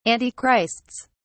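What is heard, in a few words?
"antichrists", English, British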